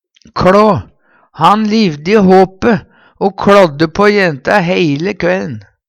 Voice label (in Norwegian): "kLå", Numedalsmål; Han livde i håpe o kLådde på jenta heile kvæll'n.